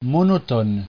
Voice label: "monotone", frengjisht

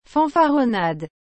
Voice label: "fanfaronnade", frengjisht

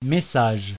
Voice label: "message", frengjisht

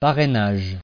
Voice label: "parrainage", frengjisht

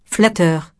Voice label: "flatteur", frengjisht

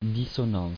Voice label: "dissonance", frengjisht

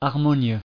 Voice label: "harmonieux", frengjisht

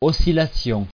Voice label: "oscillation", frengjisht

